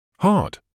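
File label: "hard", British English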